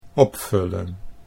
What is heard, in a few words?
"opvullen", Dutch